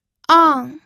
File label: "àng", pinyin